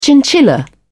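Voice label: "chinchilla", English, British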